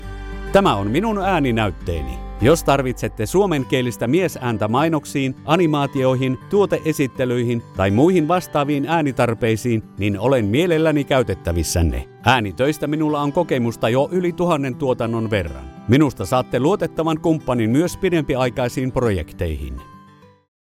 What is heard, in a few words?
finnish commercial